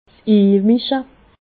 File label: "imische", alsace